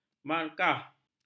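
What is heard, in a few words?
"markah", Malay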